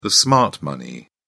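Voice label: "smart money", English, British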